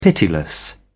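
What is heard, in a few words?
"pitiless", British English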